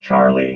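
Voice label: "charlie", robot voice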